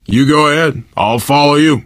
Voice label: lead on, survivor voice